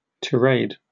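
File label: "tirade", British English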